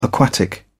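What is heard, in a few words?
"aquatic", British English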